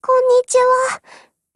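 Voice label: HELLO Japanese, Voice; GF Alarm; ASVAL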